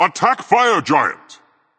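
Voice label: attack female berserker; smite; cuchulainn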